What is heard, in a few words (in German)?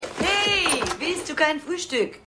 Chinesin; Happy Gilmore